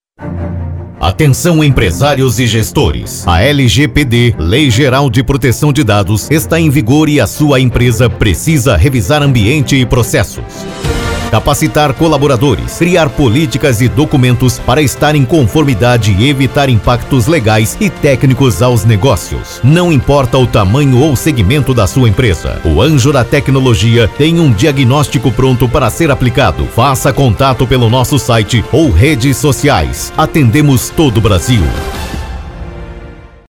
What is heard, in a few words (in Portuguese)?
spot comercial; Institucional LGPD